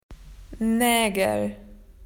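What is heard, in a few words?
"nails", Australian English; PictureDictionary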